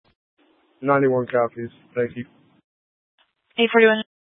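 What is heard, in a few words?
Fire Dispatch, scanner audio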